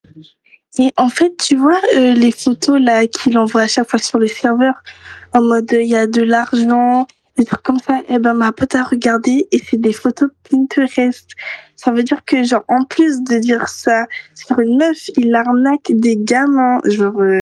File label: voice message